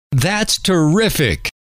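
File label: that's terrific, male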